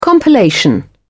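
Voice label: "compilation", British English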